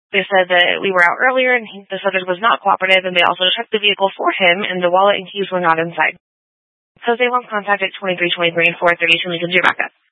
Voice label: Police Dispatch